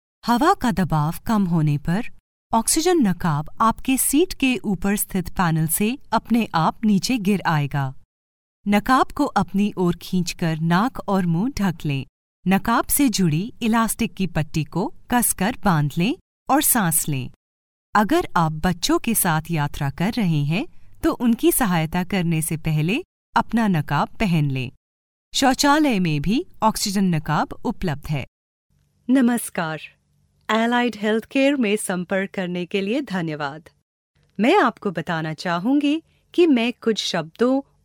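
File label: female voice, General, Hindi; voice demos; GoLocalise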